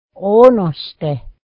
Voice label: corn, mohawk